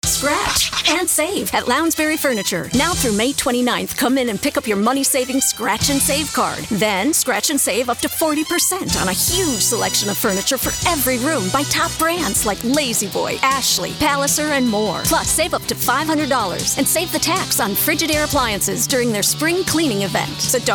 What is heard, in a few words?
Commercial High Energy Clear